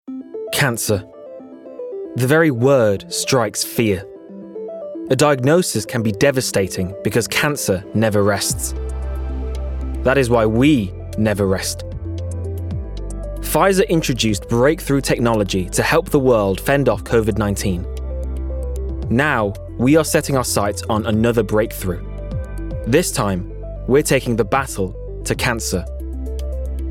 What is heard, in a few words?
Corporate; regional accents